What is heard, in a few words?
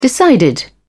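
"decided", English, British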